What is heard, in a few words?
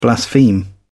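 "blaspheme", British English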